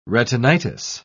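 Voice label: "retinitis", British English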